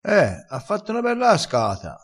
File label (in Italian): "cascata", crespinese